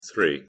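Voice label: "three", English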